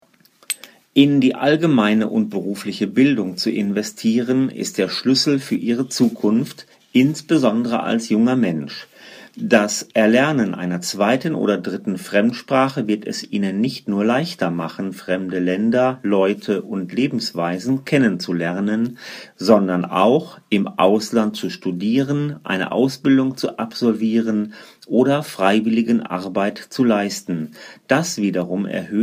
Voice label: 1-minute trial dictation DE